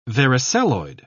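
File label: "varicelloid", British English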